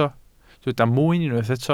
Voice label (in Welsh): "mwyn", Welsh